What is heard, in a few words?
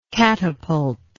"catapultic", English